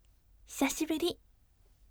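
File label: "hisashiburi", gentle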